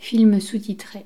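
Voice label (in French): "film sous-titre", French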